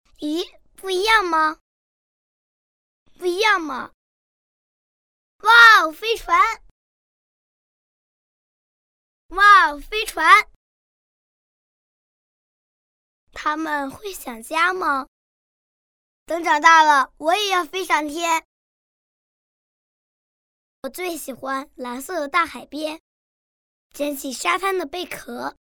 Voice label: 女 真男童声 梦境

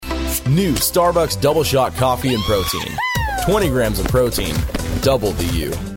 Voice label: 外籍英男-咖啡宣传片; 星巴克

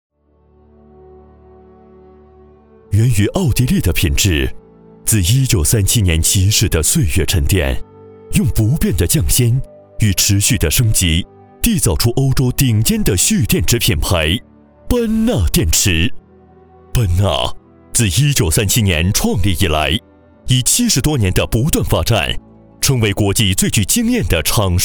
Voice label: 男, 专题片, 浑厚感动, 电池; 班纳电池

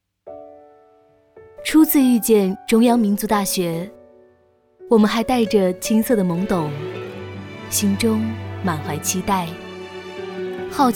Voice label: 微电影 宣传片旁白; 民大

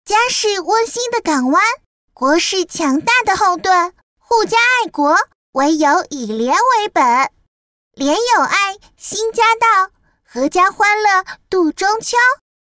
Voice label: 童声中秋配音, 女; 国庆中秋廉洁动画

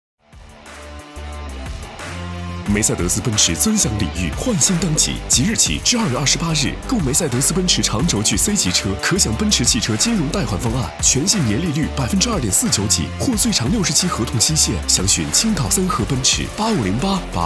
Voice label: 男 广告; 奔驰

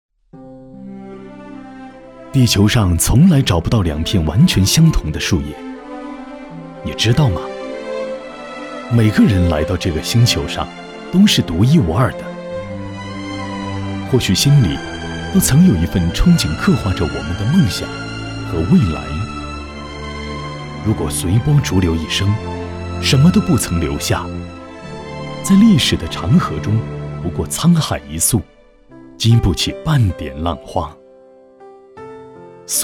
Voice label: 男 品质广告 走心讲述; 梦之投集团

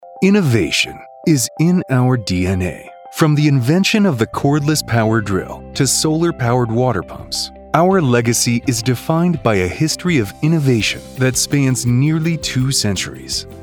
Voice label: 大气轻快宣传片

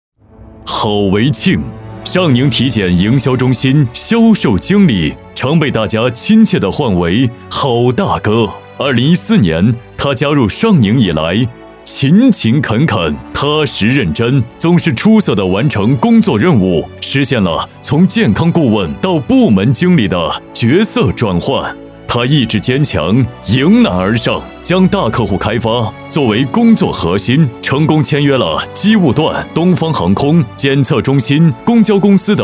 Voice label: 男 颁奖 表彰优秀

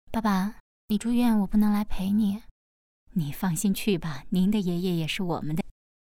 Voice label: 角色 女

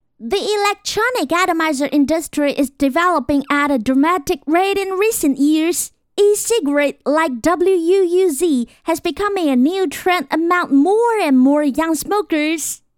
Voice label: 女 英文童声; 电子烟英文

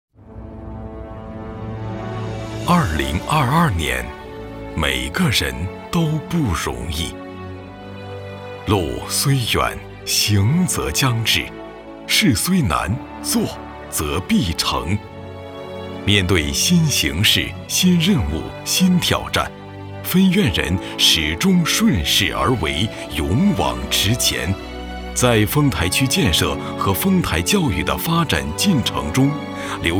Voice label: 男 汇报片 教育类 回忆 讲述

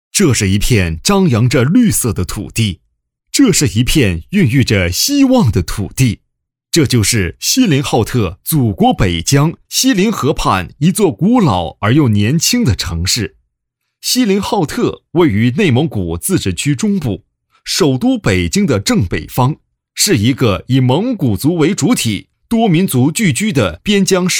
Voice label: 男 锡林浩特原声

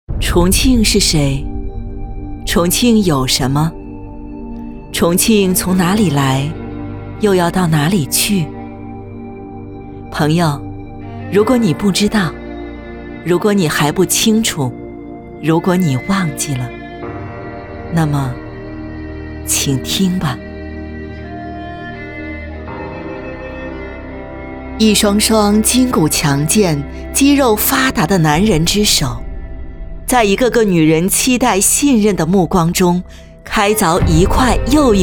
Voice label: 女 专题; 重庆

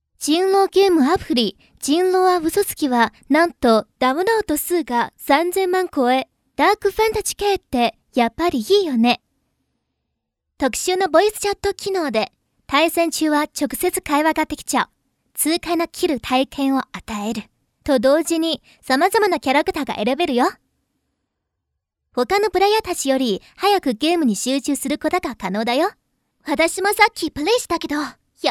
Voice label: 女, 日语专题 解说宣传

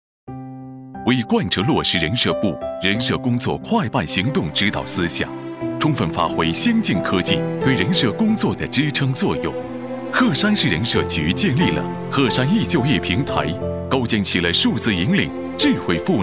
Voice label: 男 政府专题; 人社局

